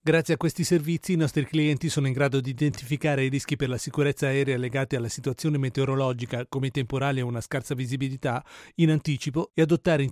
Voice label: Italian, Swiss Italian; GoLocalise